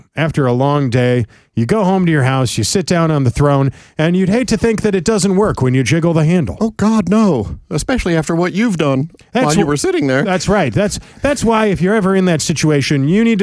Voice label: RADIO AD; nicks plumbing radio ads